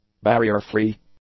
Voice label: "barrier-free", English; adjectives